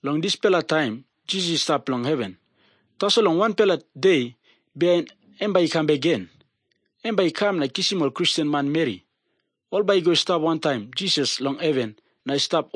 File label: tok pisin; png nt drama; can god forgive me